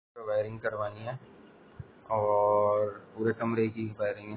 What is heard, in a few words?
Voice Note